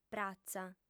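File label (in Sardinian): "pratza", female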